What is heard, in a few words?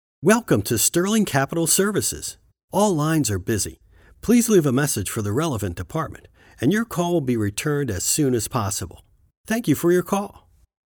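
English, US, male, IVR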